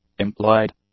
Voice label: "implied", English; adjectives

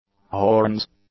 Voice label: "horns", English; nouns

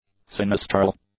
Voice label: "sinistral", English; adjectives

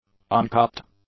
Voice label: "uncorrupt", English; adjectives

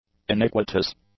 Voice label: "iniquitous", English; adjectives

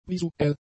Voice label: "visuell", German; adjectives

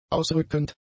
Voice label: "ausrückend", German; adjectives

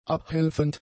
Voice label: "abhelfend", German; adjectives

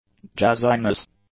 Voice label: "jazziness", English; nouns

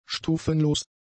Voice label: "stufenlos", German; adjectives

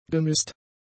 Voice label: "gemisst", German; adjectives

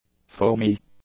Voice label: "foamy", english; adjectives

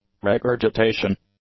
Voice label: "regurgitation", English; nouns

